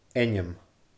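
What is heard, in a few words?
"ainm", Scottish Gaelic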